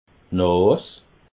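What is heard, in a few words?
"nas", Alsatian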